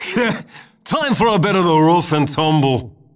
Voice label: Male; baldurs1